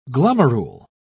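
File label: "glomerule", English, British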